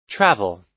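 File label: "travel", American English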